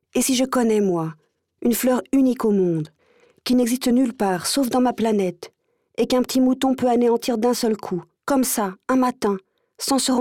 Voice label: FRANZOESISCH FEMALE Hoerbuch

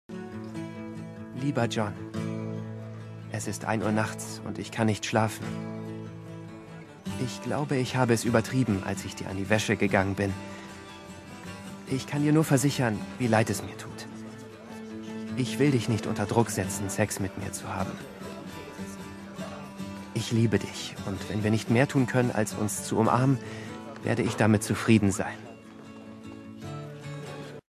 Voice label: synchron; holding nachts

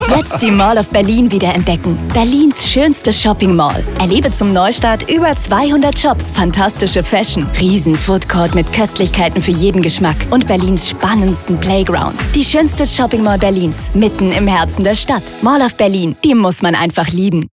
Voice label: Radiospot

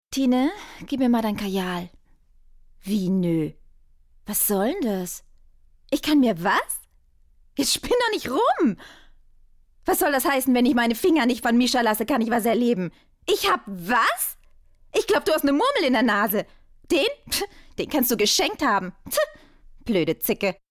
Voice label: synchron

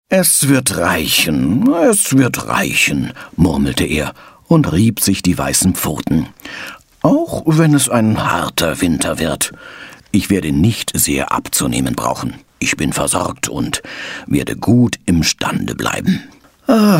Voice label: lesung; ambrosius dauerspeck

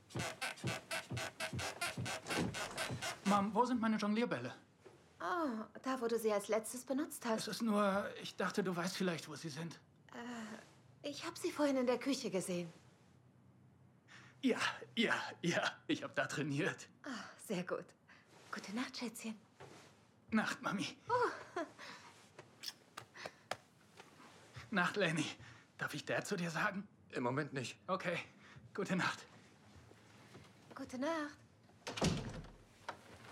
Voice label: unbeschwert gutherzig; After Life

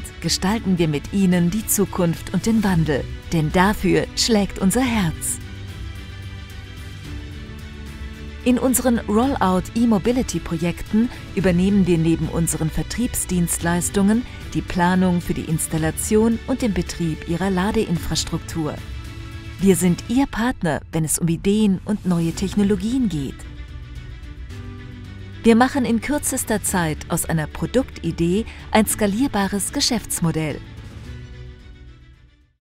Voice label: Imagefilm Business; Volkswagen; LOFT VOICES

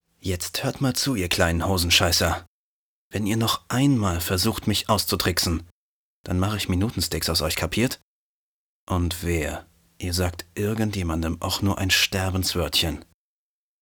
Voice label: Synchron Hörspiel

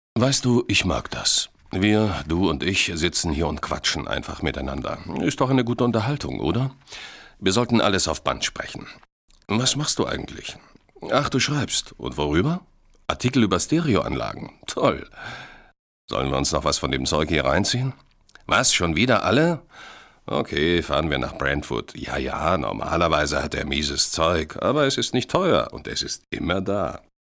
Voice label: synchron

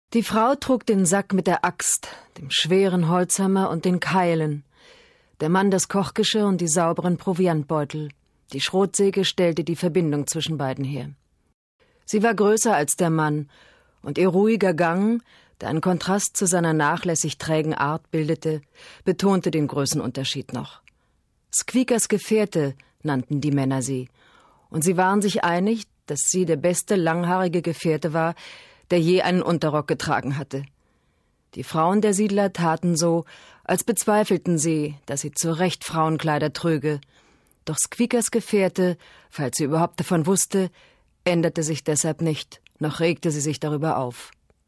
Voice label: erzaehlung

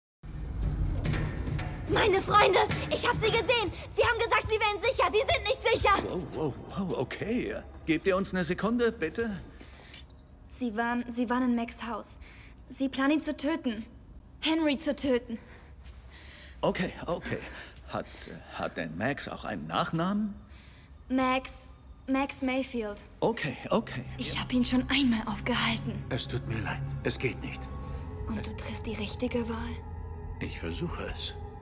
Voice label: Sprachprobe, Lip-Sync (Synchron), German; Stranger Things

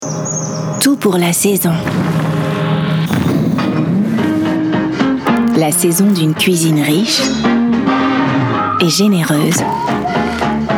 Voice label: frz; kikkoman

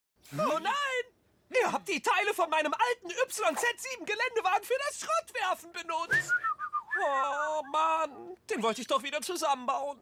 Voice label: Animation enttaeuscht

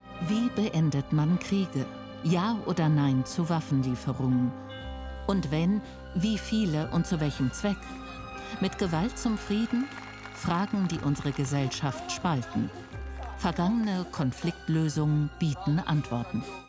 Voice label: Sprache Doku; 3sat

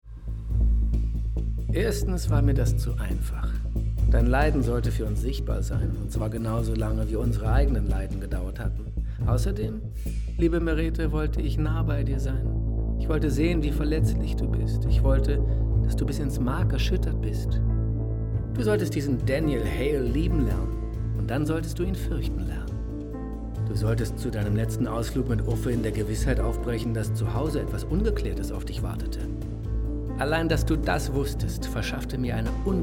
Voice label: Hörspiel; Erbarmen

